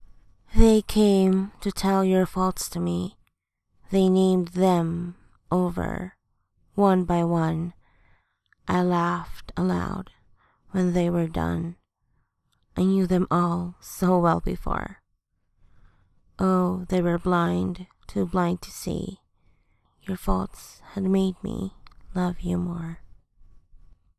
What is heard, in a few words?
F, Romantic, Poem; FAULTS; Poem by Sara Teasdale; acceptance; 28 Days of Love Poetry